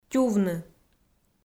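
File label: "тювны", Komi, female; audio dict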